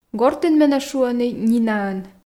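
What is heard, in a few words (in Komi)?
"гортын менӧ шуӧны нинаӧн", Komi, female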